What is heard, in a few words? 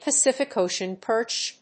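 "PACIFIC OCEAN PERCH", English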